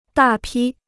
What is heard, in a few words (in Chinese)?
"大批", Chinese